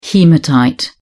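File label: "haematite", British English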